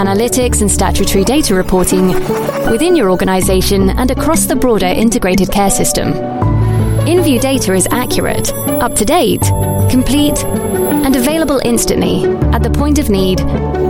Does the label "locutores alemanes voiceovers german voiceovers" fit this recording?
No